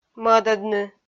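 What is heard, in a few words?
"мӧдӧдны", Komi-Zyrian, female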